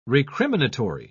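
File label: "recriminatory", British English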